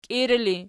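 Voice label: "kiiri" ergative, Archi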